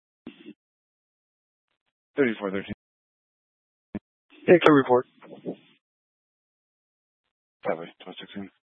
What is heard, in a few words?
Police Department Dispatch, scanner; Grand Junction Police Department Dispatch